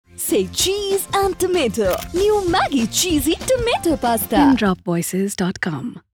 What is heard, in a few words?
English